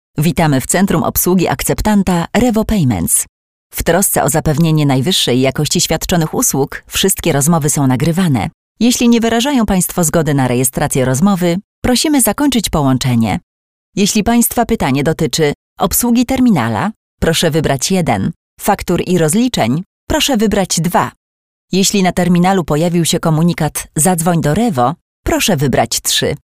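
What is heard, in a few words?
interactive voice response; Revo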